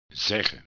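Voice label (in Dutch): "zeggen", Dutch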